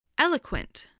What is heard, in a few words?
"eloquent", spelling